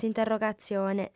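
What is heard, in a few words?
"s'interrogatzione", Sardinian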